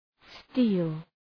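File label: "steel", English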